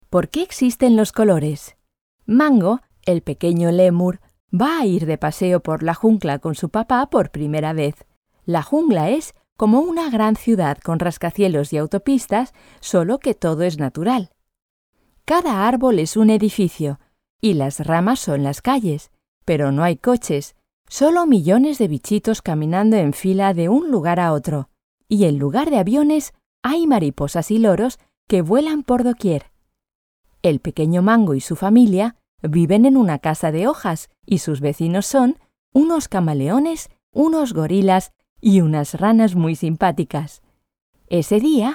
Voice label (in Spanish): fairytale es; lemur